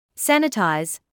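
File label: "sanitise" English, British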